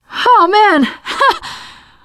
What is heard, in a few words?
hurrah, teengirl; player; survivor; voice